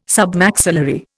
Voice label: "Submaxillary", Indian